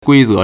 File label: "gui1ze2", Chinese